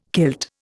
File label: "kilt", Indian